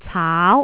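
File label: "caau2", Cantonese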